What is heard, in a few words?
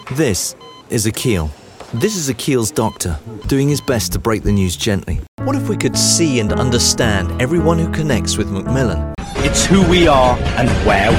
british commercial